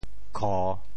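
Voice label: "kho3", Teochew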